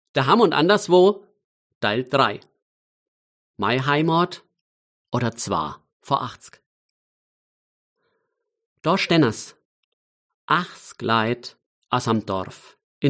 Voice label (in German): Mundart